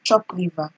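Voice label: "chop liver", Nigerian Pidgin, female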